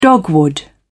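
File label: "dogwood", British English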